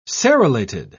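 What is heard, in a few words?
"serrulated", British English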